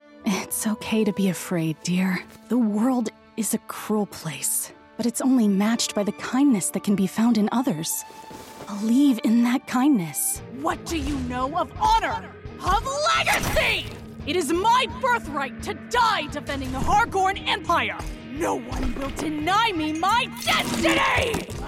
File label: Character